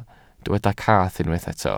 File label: "cath", Welsh